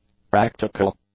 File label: "practical", english; adjectives